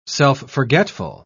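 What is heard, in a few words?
"self-forgetful", British English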